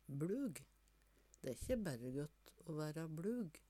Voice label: blug, Numedalsmål; Adjektiv

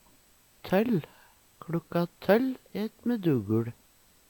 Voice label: toll, Numedalsmål; Determinativ (mengdeord)

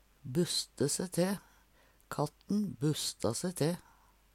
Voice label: "busste se te", Numedalsmål; bli sinna, reise bust, buste til håret

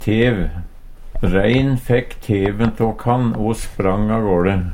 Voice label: tev, Numedalsmål; Substantiv hankjønn; Reinen fekk teken tå kann o språng agåLe.

